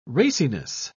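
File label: "raciness", British English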